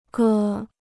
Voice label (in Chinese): "歌", Chinese